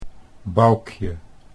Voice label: "Boukje", Dutch

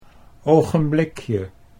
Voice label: "ogenblikje", Dutch

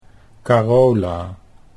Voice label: "Carola", Dutch

